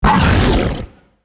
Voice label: BOSS Hurt